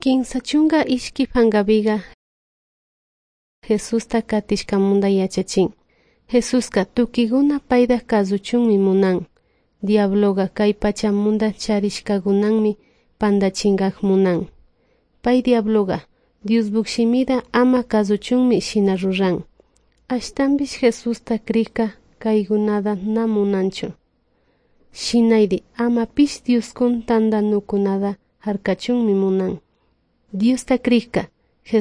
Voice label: good news quichua de salasaca